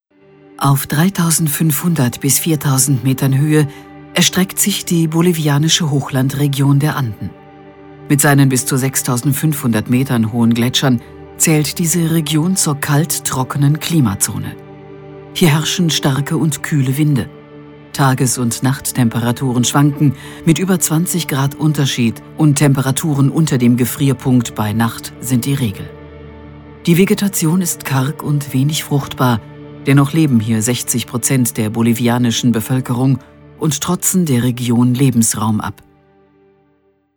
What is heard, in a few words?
OFF ARTE DOKU; weibliche sprecherin fuer voice-over